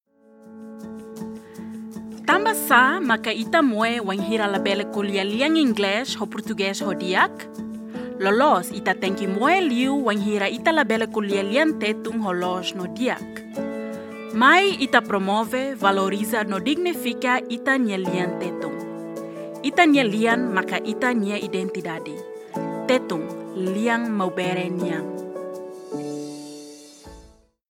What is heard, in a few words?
Tetum